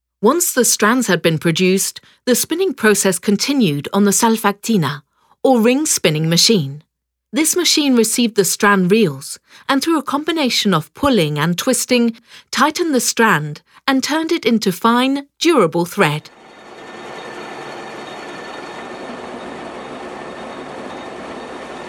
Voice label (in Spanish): audioguia; fabrica textil engl